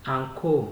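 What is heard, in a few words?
"anko", Nigerian Pidgin, male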